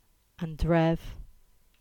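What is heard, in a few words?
"Drift" Cornish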